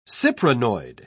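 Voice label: "cyprinoid", British English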